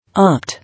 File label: "Opt", English